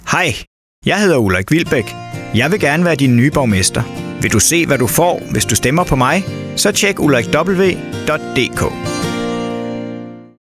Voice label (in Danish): Valgspot; Ulrik Wilbek